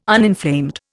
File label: "uninflamed", Indian